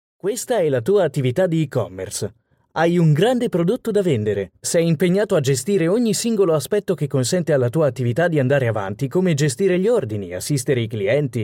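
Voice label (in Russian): голоса, Italian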